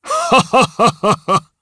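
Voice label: Vox Happy, Japanese; Dakaris; kingsraid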